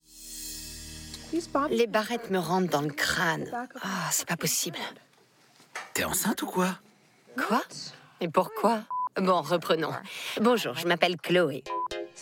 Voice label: voiceover; la famille kardashian